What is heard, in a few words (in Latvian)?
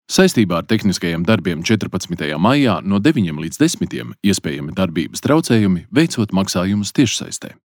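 Infografika Latvian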